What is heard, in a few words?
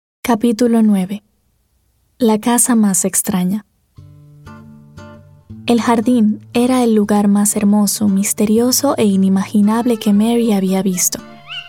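Latin American Spanish, Narrative; voice demos; GoLocalise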